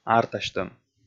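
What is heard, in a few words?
"артасьтӧм", Komi, male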